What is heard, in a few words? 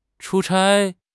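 "chu1 chai1", Chinese; HSK4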